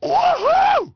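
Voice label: male wow; People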